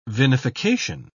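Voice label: "vinification", English, British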